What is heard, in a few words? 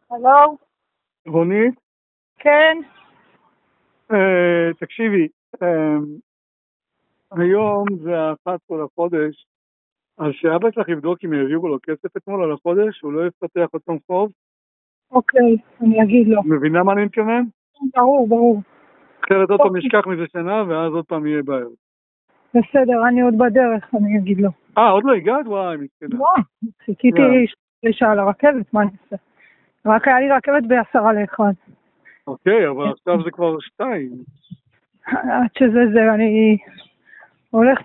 Call recording